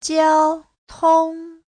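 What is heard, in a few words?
"jiāotōng", Mandarin Chinese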